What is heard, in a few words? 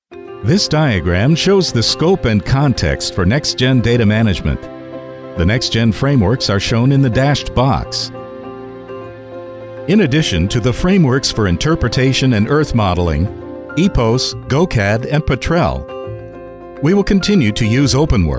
外籍男 Instructional